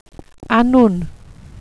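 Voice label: "annwn", welsh pronunciations; Wales/welsh names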